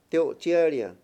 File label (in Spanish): "bendecir", Mayo; Religón y creencias